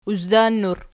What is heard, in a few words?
"uzdannur", Archi